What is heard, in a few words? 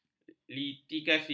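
"litigasi", Malay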